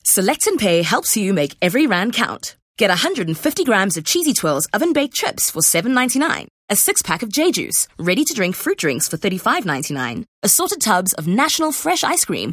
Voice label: English hardsell